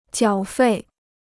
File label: "缴费", Chinese